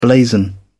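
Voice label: "blazon", British English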